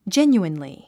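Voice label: "genuinely", English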